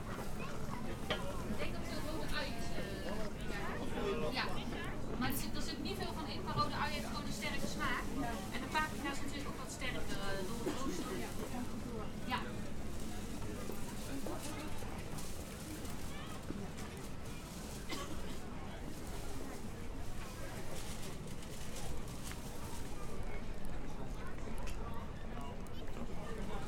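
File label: Market Trader Dutch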